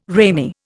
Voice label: "ramie", Indian